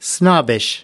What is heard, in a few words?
"snobbish", us